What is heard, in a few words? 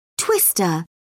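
"twister", English, British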